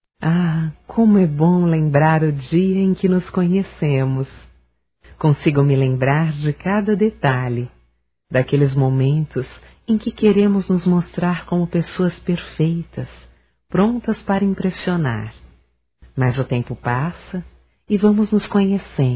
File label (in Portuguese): Pegadas na Areia Feminino; Telemensagens 2017